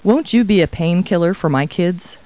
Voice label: people, kid

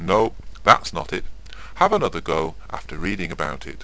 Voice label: chat; Know Your Stuff: Kings & Queens

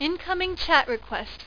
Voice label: chat; amiga

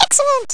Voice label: "excellent", English; Zoom - Release 2; Active Software; worms

